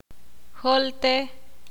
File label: ixil